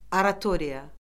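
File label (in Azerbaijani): "oratoriya", Azerbaijani; Orfoqrafiya Lüğəti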